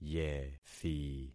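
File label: "yethi", concatenated syllables; Affixing experiment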